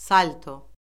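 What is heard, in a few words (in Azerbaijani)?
"salto", Azerbaijani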